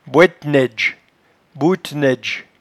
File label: "boetnaidge", patois jura